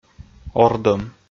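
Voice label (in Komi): "ордӧм", Komi, male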